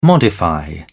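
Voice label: "modify", British English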